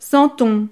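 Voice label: "santon", French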